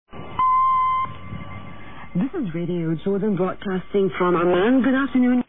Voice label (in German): ansage